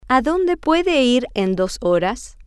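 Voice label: Spanish, where can he go in two hours, female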